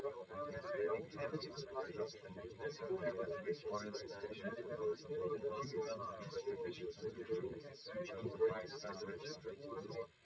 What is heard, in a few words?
male srec 130ms 20cm sim; SiSEC11; underdetermined